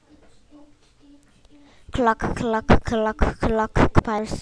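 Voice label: "clock", spoken word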